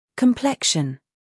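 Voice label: "humor", British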